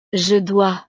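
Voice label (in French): "je dois", French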